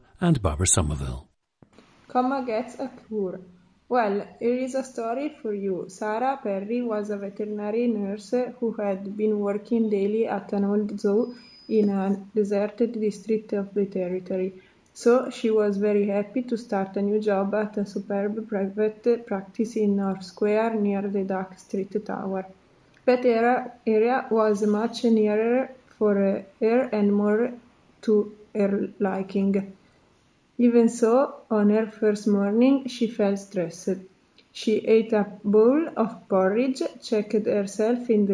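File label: italy, International Dialects of English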